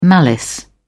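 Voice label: "malice", English, uk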